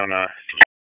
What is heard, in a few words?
Police Northeast District Tac; Raleigh; Tac 1; wake; p25